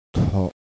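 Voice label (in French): "tha", ton bas, thailandaise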